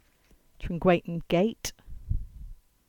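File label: English; Trengwainton Gate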